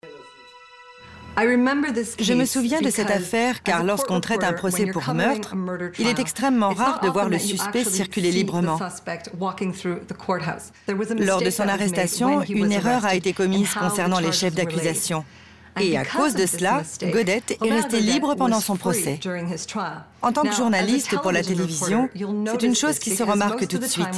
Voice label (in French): voix off; canadian Files